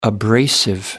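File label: "abrasive", English, British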